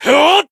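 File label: limit break, Japanese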